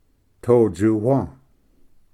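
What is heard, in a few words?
"totsuwa", Cherokee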